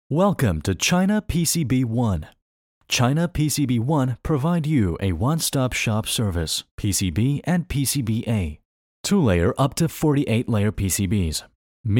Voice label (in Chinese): 数控企业宣传片